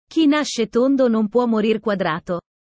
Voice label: italian sayings about life